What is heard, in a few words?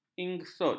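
"ingsut", Malay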